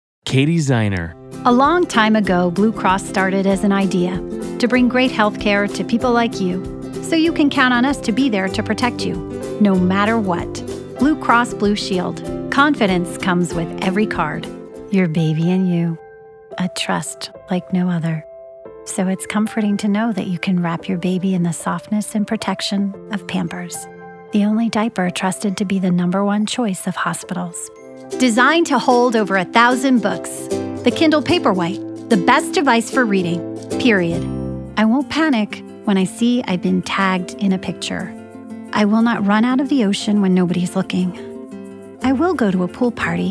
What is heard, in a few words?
commercial